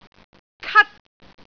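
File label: "kat1", Cantonese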